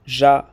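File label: "gea", French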